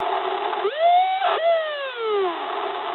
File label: eng trick mood high, speech eng; War Thunder